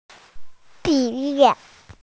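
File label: "graduation", Mandarin Chinese, female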